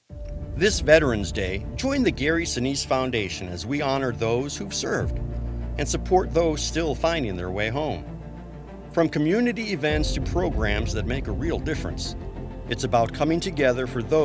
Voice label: PSA; Sinise

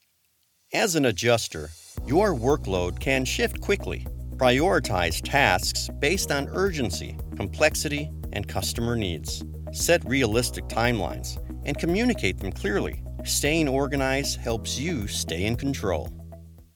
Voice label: Insurance Claims Time Management Narration

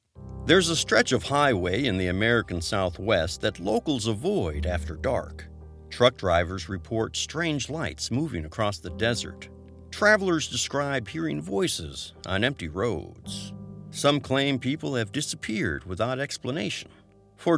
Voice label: documentary narration; Devils Road

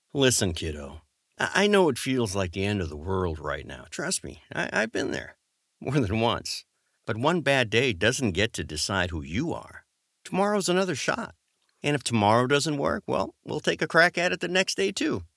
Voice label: DAD pep talk

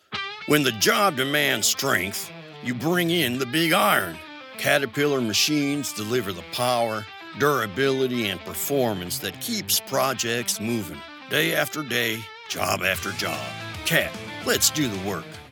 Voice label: Radio, commercial voiceover, guy-next-door tone; CAT Radio

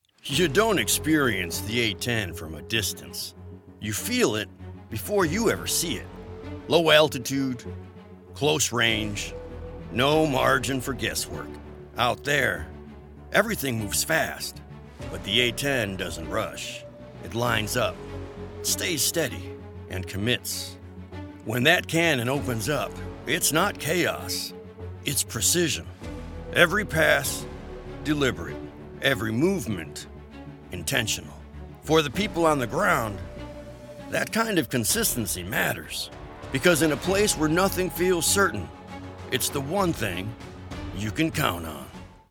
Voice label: Doc, documentary narration

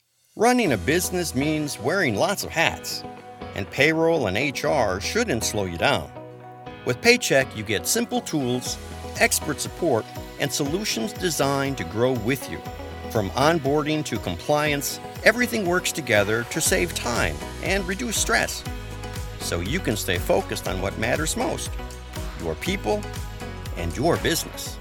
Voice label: corporate narration voiceover business; Paychex

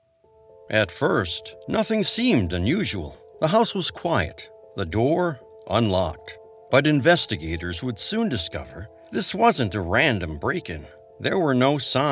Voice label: Doc True Crime

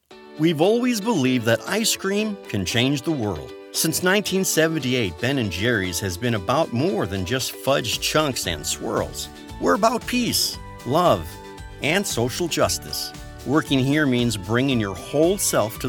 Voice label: corporate narration; Ben and Jerrys

